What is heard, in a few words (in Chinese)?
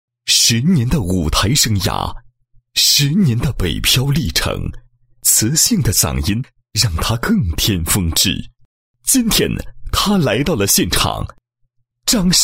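男 人物 歌手登场介绍宣传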